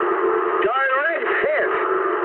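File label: English bomb success mood med; War Thunder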